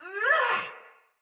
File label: Battle Female; Sinecure Soldier Mace Agent; Sinecure Melee